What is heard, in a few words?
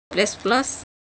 Semai Sound